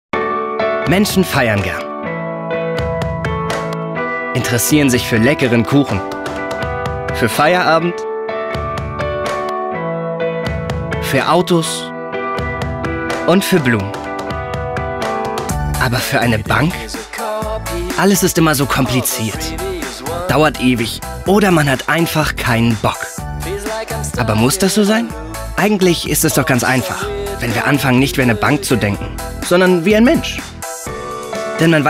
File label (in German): Stimmprobe Werbung